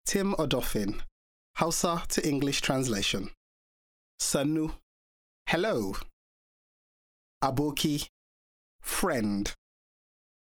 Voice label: Hausa to English